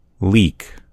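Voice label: "leek", US English; gcompris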